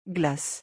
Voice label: "glace", French